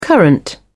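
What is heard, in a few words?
"current", British English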